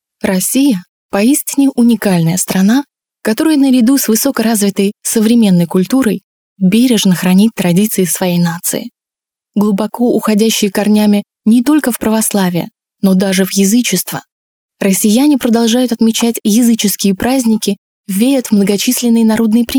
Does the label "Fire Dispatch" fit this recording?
No